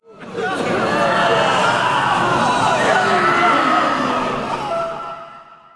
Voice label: lobby crowd beg; smite; cthulhu

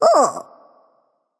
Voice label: surprised; smite; ratatoskr

